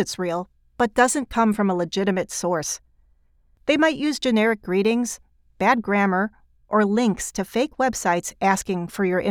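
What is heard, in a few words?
E-learning Explainer Phishing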